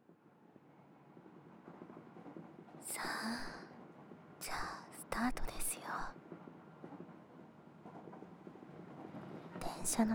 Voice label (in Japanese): (左耳 効果音なし) お耳ふーふーとおっぱい押し付け誘惑